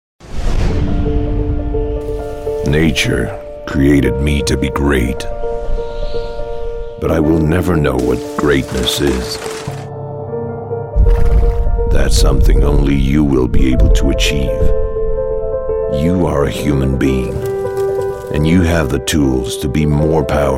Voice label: male english usa usa accent commercial; renault